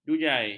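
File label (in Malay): "jujai", Malay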